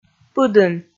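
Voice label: "пыдын", Komi, female; kpv audio dict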